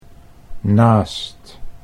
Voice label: "naast", Dutch

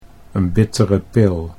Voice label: "n bittere pil", Dutch

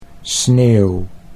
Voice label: "sneeuw", Dutch

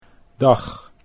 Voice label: "dag", Dutch

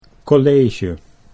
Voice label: "college", Dutch

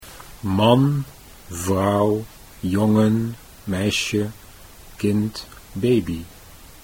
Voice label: "man vrouw", Dutch

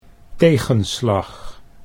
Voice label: "tegenslag", Dutch

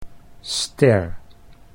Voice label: "ster", Dutch

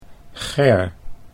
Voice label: "Ger", Dutch

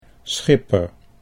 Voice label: "schipper", Dutch

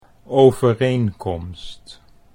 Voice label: "overeenkomst", Dutch

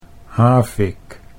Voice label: "havik", Dutch

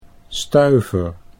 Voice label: "stuiver", Dutch; 'nickel.'

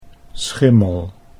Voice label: "schimmel", Dutch

